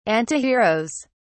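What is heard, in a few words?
"antiheroes", British English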